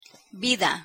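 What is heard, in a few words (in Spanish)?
"vida", Spanish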